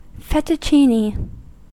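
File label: "fettuccine", US English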